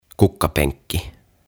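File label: "Kukkapenkki", Finnish; Flower Bed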